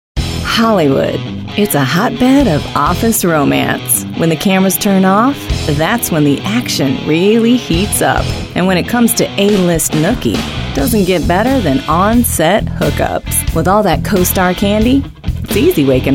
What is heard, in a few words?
women narration